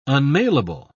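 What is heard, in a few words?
"unmailable", English, British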